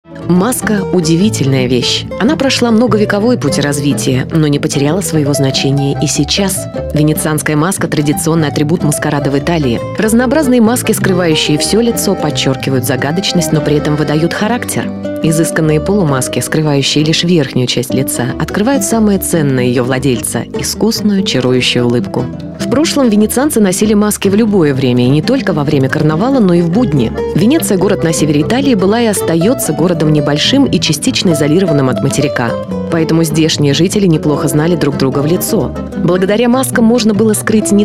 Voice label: rus